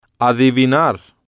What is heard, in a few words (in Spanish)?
"adivinar", spanish